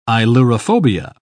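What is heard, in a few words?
"ailurophobia", British English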